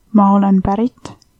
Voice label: i'm from, estonian

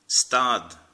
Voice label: "stop", Irish

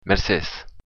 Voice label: thanks, occitan

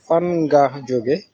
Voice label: where from, wolof

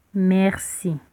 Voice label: thanks, lingala

